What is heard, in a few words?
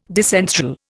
"disenthral", Indian